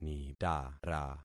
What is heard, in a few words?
"nitara", concat syllables; Affixing experiment